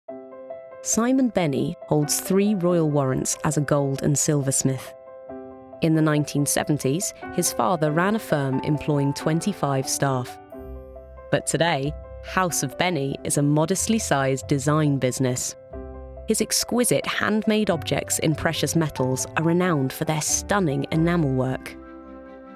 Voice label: Documentary